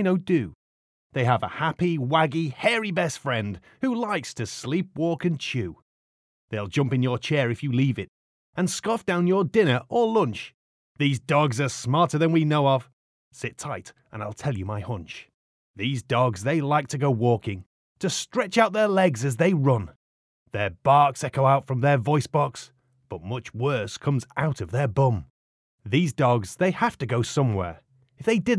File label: Narration Showreel